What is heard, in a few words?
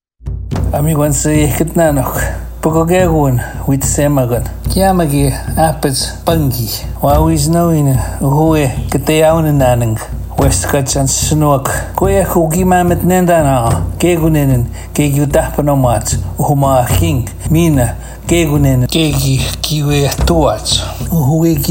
Oji-Cree